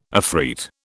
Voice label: "afreet", British

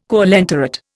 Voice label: "coelenterate", Indian

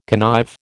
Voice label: "connive", American

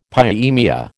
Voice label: "pyemia", American

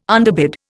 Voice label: "underbid", Indian

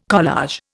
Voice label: "collage", Indian

